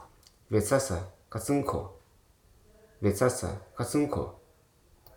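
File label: "knife", English, Hruso dictionary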